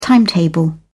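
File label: "timetable", British English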